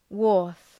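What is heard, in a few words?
"wharf", English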